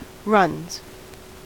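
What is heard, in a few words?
"runs", American English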